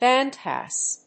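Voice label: "bandpass", English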